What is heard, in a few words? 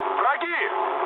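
Russian enemy detected mood med, speech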